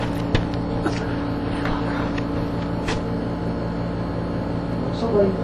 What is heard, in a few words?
mans voice